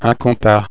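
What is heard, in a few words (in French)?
"compas equerre", French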